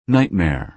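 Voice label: "nightmare", American English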